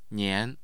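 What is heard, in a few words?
"nian", Mandarin Chinese, tone 2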